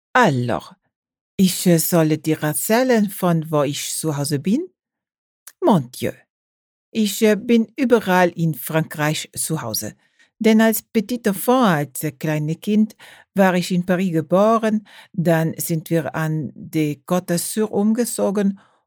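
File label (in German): Stimmprobe Akzent französisch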